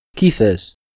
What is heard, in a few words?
kifach, darija